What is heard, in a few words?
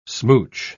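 "smooch", English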